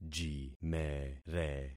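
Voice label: "jimere", concatenated syllables; Affixing experiment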